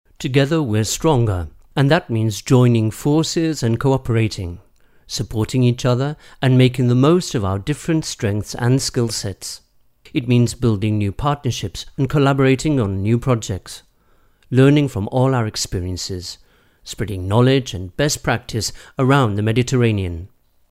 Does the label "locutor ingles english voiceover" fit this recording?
Yes